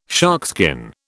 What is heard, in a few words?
"sharkskin", British